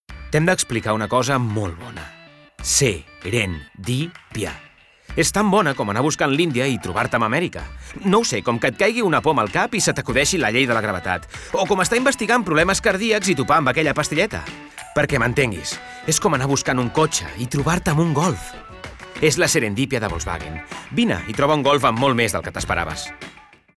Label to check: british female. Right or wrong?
Wrong